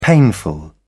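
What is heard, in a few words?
"painful", British English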